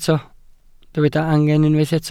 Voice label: "angen", Welsh

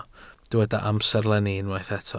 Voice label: "amserlenni", Welsh

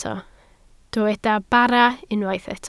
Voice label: "bara", Welsh

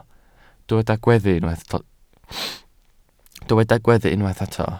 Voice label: "gweddu", Welsh

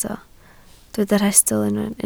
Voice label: "rhestl", Welsh

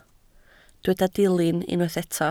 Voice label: "dilyn", Welsh

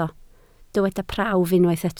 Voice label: "prawf", Welsh

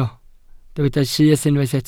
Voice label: "syth", Welsh

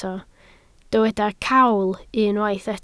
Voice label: "cawl", Welsh

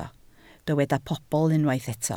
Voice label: "pobl", Welsh

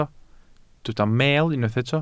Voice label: "meel", Welsh

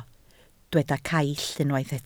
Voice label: "caill", Welsh

